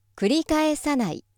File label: female